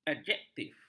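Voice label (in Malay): "adjektif", Malay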